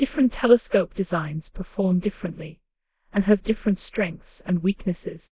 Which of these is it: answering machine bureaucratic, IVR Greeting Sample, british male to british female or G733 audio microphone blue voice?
british male to british female